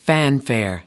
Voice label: "fanfare", us